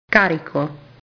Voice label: "carico", italian